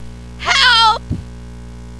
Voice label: help me cave